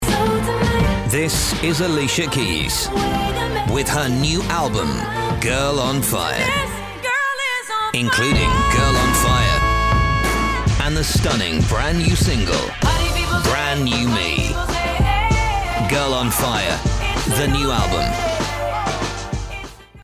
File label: Album TV Ad